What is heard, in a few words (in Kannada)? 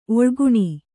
"ಒಳಗಾಣ್", Kannada